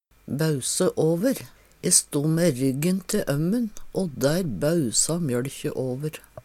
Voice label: "bause åver", Numedalsmål; E sto mæ ryggen te ømmen o der bausa mjøLkje åver.; t.d. mjølk og kaffe

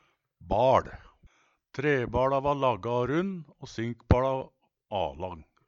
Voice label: "baL", Numedalsmål; TrebaLa va lagga og runn, sinkbaLa avlang

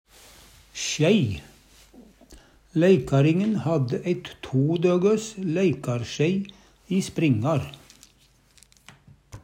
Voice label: sjei, Numedalsmål; Læikar-ringen hadde eit to-døgøs læikar-sjei i springar.

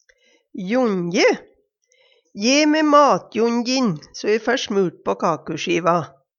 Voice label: jonje, Numedalsmål; Hushald, mat, drikke

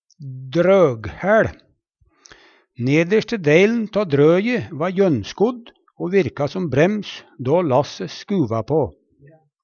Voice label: "drøghæL", Numedalsmål

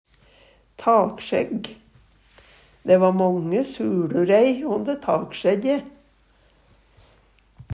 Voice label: taksjegg, Numedalsmål

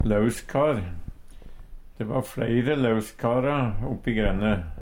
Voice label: lauskar, Numedalsmål; De va fLeire lauskara oppi grende.

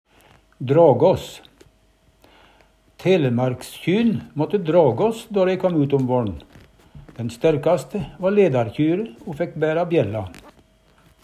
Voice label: "dragas", Numedalsmål

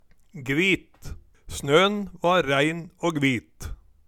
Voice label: gvit, Numedalsmål; Snøn va ræin å gvit

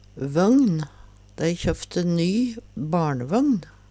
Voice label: vøgn, Numedalsmål; Dei kjøffte ny barnevøgn.

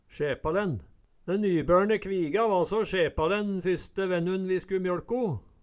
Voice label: "sjepalen", Numedalsmål; Sjepalin er og bruka.

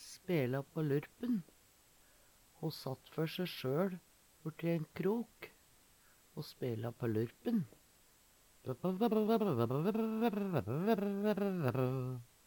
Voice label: spela pa lurpen, Numedalsmål; Uttrykk

